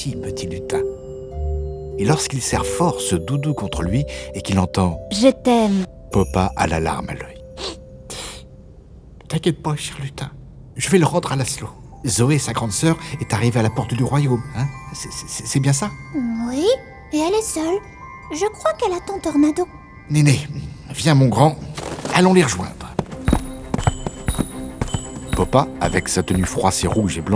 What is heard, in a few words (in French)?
Noël narrateur